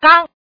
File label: "gang", woman